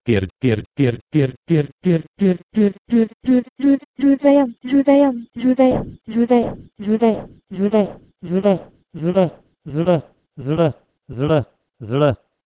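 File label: Proto-Indo-European kerd to Pashto zra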